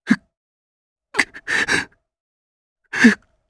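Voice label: Vox Sad, Japanese; heroes; Shakmeh; kingsraid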